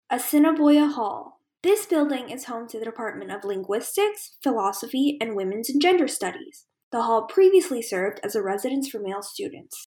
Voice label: English; Assiniboia Hall (ASH); NSO Tour